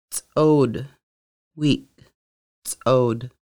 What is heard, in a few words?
Weak, conversational Lushootseed